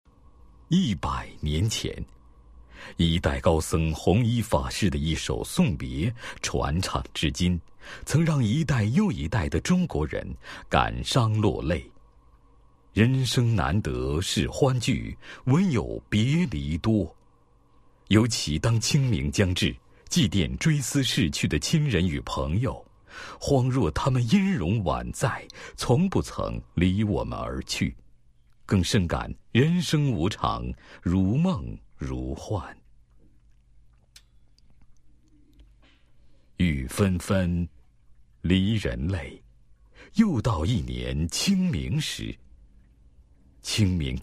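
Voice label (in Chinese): 散文宣传片配音; 二月十九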